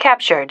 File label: "captured", female